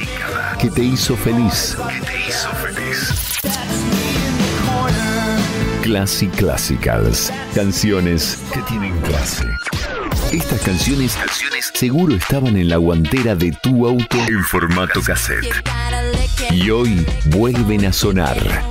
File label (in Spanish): Artistica para Radio con musica de los 90s